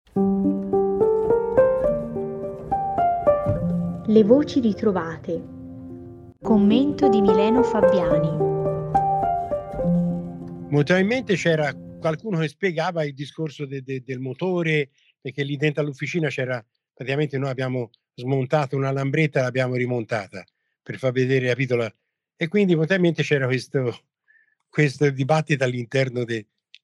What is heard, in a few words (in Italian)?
Narrazione